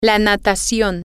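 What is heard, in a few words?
swimming, Spanish, female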